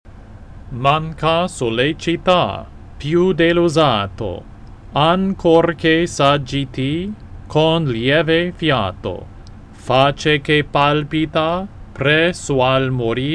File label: pronunciation normal speed, Italian; La Scala